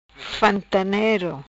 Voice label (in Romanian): "Fantanero", Macanese Patuá